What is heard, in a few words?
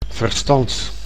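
"verstand", Dutch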